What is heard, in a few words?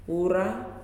"Chicken", Nalik